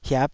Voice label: "hiap5", taiwanese

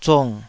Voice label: "zung3", Taiwanese

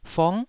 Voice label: "fong9", Taiwanese

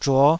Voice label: "zhuo5", Taiwanese syllable

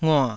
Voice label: "ngua3", Taiwanese syllable

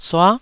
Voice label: "sua9", taiwanese Syllables

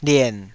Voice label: "lien3", Taiwanese syllable

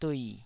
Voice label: "dui3", taiwanese syllables

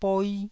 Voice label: "boi1", taiwanese

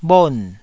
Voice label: "bhon4", taiwanese Syllables

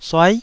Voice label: "suai9", taiwanese

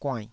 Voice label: "guainnh9", taiwanese

